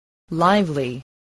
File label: "lively", spelling